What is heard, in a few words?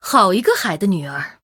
Female Murloc Greetings Mirror, zh